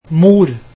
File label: mother, norwegian